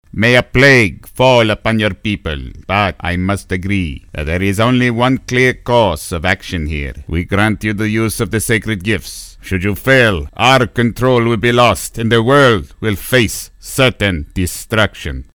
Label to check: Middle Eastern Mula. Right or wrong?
Right